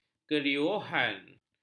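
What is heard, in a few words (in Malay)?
"keriuhan", Malay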